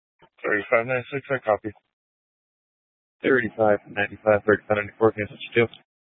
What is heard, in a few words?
Police Department Dispatch; Grand Junction